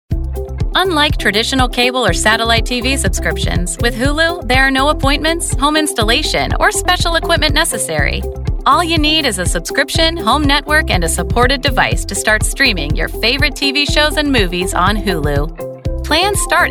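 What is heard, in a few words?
Corporate, Professional Female Voice Talent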